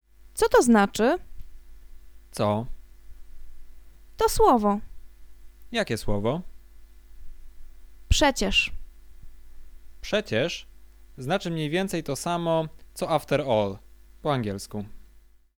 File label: conversation; lektorek; firstyear